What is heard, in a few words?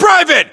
rank private, us